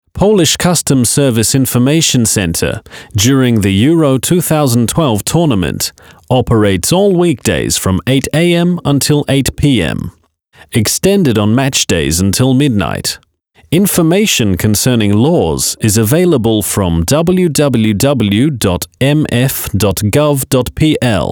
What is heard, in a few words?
phone recording zapowiedz tel